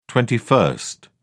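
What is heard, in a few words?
"twenty-first", English, British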